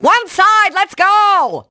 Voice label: Female; Hit&Run